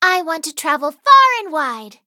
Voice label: level up, en; cookie run